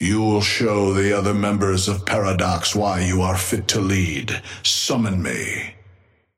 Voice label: Patron male ally chrono start; Amber Hand voice line - You'll show the other members of Paradox why you are fit to lead. Summon me.